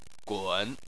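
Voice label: "gun", Chinese, third tone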